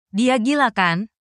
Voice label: indonesia, negative phrases